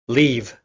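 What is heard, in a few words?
"leave", English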